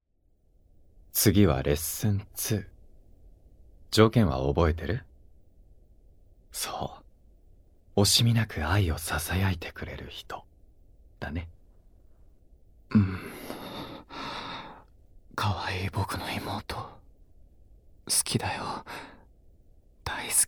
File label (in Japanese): 囁き、耳舐め、耳攻め, ASMR; 深層版